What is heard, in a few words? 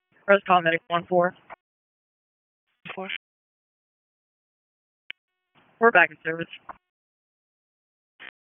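EMS Dispatch, p25; wake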